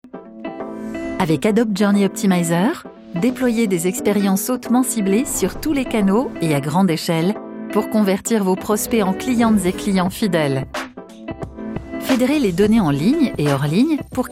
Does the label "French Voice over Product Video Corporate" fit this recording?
Yes